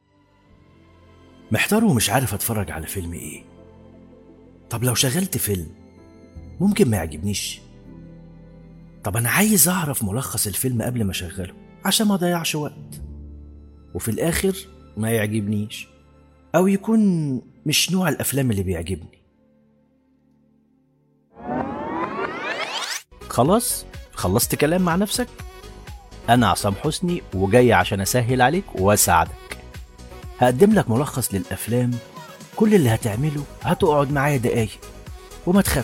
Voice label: برومو نادى السينما

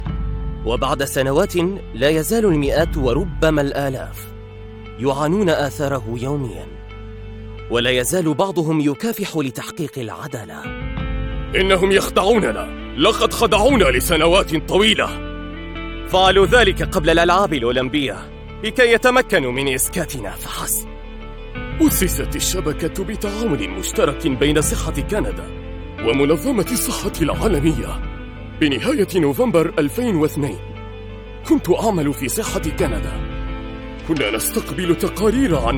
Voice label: تعليق صوتي وثائقي

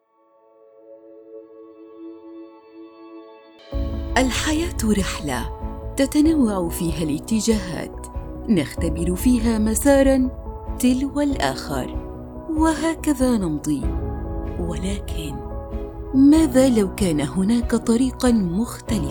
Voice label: اعلان سيارة, معلقة صوتية

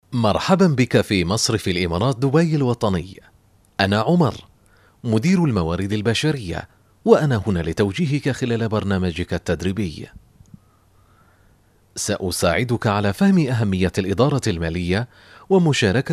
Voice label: برومو رد آلي

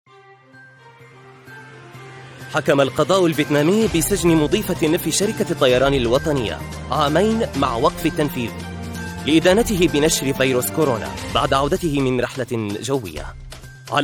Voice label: تقرير اخباري

